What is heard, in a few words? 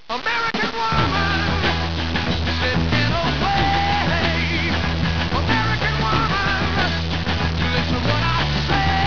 woman